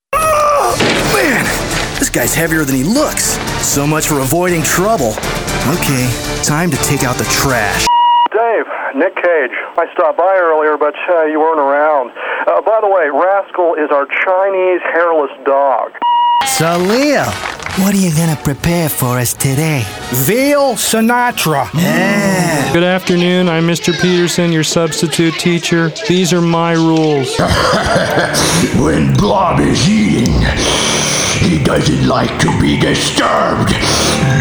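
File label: animation, men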